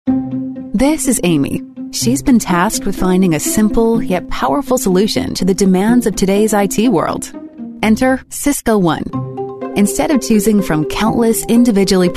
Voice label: english american voice over female narrator

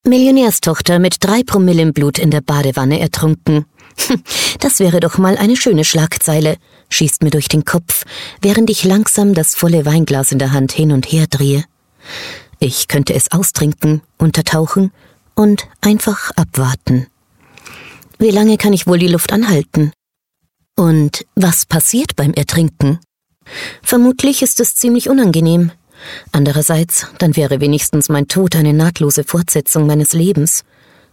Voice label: german voice over female audiobook

